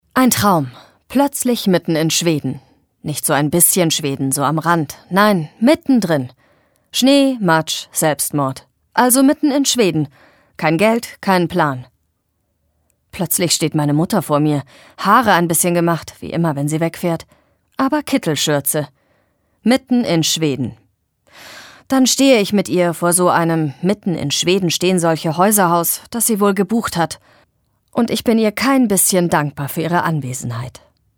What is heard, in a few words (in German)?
Schauspiel Neutral